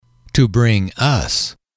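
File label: "to bring us", English, male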